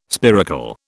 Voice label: "spiracle", British